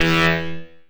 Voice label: Vocoded; Drum & Bass; One Shots; Misc